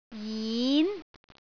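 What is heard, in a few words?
"jin2", Cantonese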